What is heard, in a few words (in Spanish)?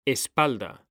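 "espalda", Spanish; mekanta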